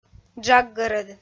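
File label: "джаггӧрӧд", Komi, female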